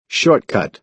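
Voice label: "short cut", American English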